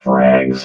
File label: "frags", robot vox